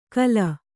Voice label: "ಕುಲಿ", Kannada

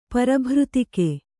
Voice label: "ಪರಭೃತಿಕೆ", Kannada

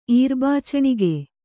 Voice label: "ಈರ್ಬಾಚಣಿಗೆ", Kannada

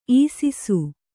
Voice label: "ಇಸಿಸು", Kannada

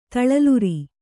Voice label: "ತಳಲುರಿ", Kannada

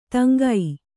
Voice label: "ತಂಗು", Kannada

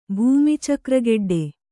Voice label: "ಭೂಮಿಚಕ್ರಗೆಡ್ಡೆ", kannada

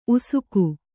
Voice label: "ಉಸಿಕ", kannada

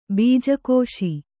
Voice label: "ಬೀಜಕೋಶ", Kannada

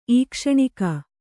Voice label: "ಈಕ್ಷಣಿಕೆ", Kannada

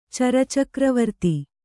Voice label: "ಚರಚಕ್ರವರ್ತಿ", Kannada

